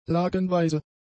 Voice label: "lagenweise", German; adjectives